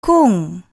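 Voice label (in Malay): "kung", Malay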